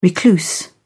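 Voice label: "recluse", British English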